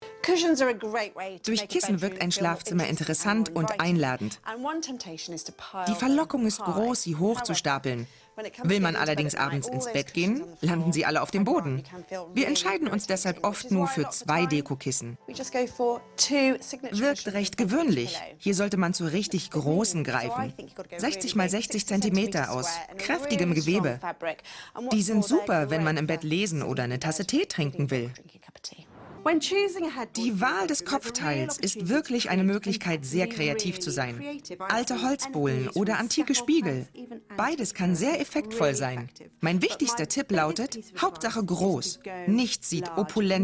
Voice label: voice over Interior Design